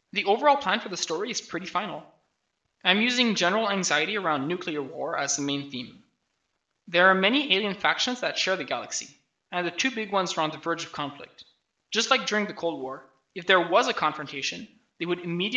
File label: voice, narration